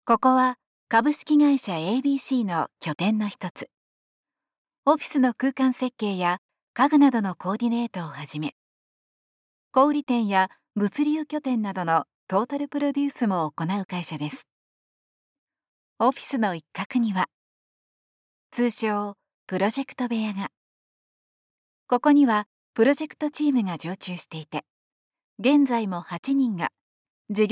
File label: female